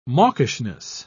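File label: "mawkishness", British English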